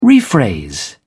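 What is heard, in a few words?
"rephrase", British English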